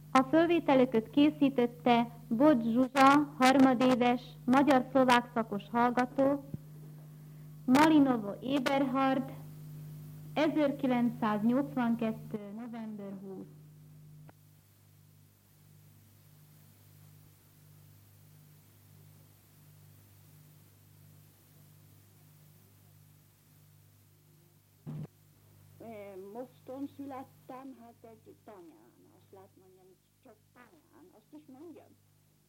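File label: dialect collections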